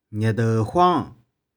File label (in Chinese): "nih4deu1huong6", 玉環